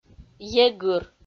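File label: "егыр", Komi, female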